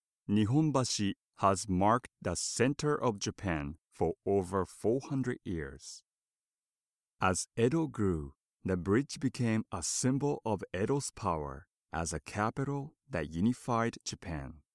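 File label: Japanese English, male, narration; voice over; accent of japan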